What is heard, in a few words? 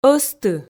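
"ӧзты", Komi, female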